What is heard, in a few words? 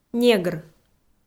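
"негр", Komi, female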